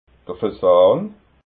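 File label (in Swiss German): "fasan", Alsatian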